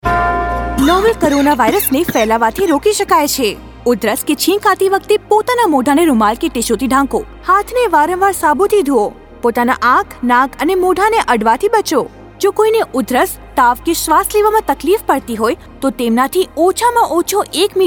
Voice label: Cough Radio Gujarati; Dadra & Nagar Haveli Daman & Diu; Radio spot on key behaviours COVID-19; UNICEF IEC eWarehouse